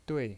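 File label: "dui" Mandarin Chinese, fourth tone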